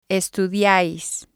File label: "estudiais", spanish